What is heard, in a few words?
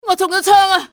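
im hit, cantonese female; XBOX; enemy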